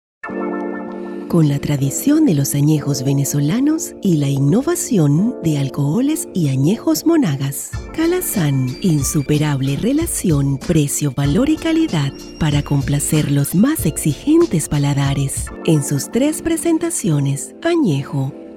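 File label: comercial, Venezuelan Spanish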